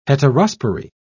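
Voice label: "heterospory", English, British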